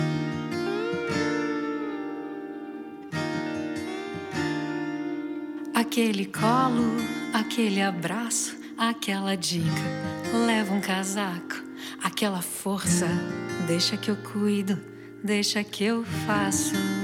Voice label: dia mãe distante, voz masculina; dia das maes para minha mae distante voz masculina

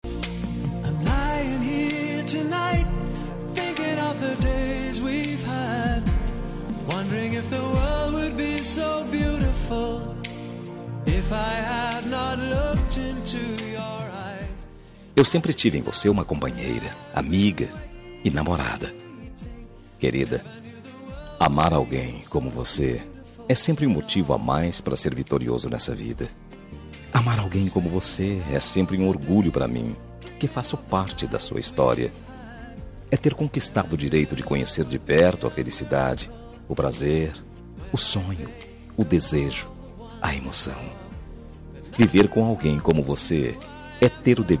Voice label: dia dos namorados esposa, voz masculina; dia dos namorados para esposa voz masculina

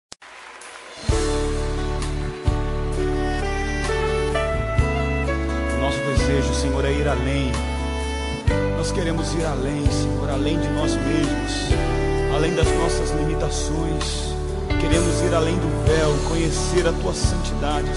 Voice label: neutra gospel, Aniversário Pessoa Especial Gospel – Voz Feminina

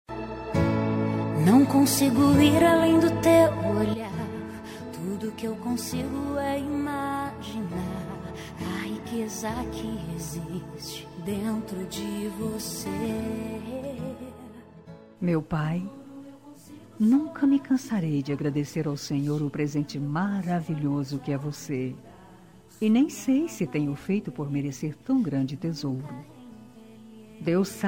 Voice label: dia dos pais feminina gospel; evangelico voz

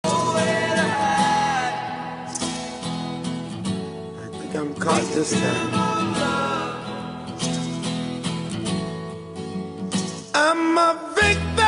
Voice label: romântica, Telemensagem Romântica – Voz Masculina

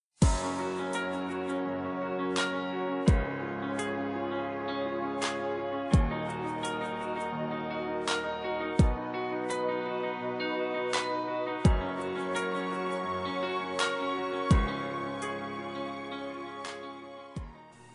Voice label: aniv casamet masc, Aniversário de Casamento Romântica – Voz Masculina; Telemensagens para todas as ocasiões

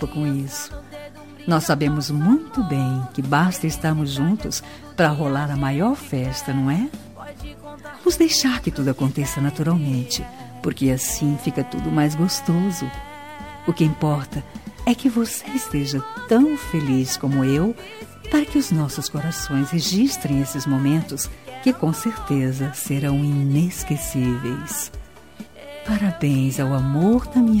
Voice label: dia dos namorados aniversário, voz feminina

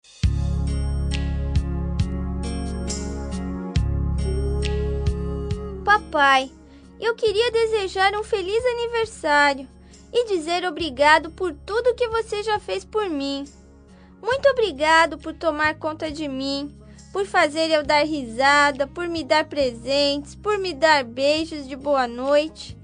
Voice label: infantil pai masc, Voz Infantil, Voz Masculina; Aniversário Voz Infantil – Pai – Voz Masculina; Telemensagens

